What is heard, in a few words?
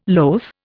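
"loath", Indian English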